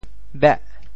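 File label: "beh8", Teochew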